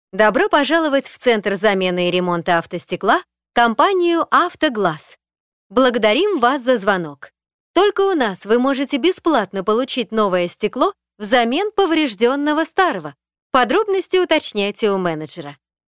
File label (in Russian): Автоответчик ремонт и замена автостекол; ДИКТОРЫ ДЛЯ IVR